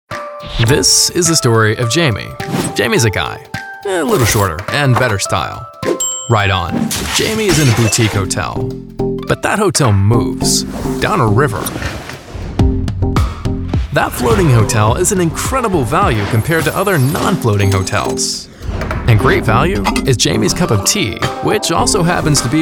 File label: Conversational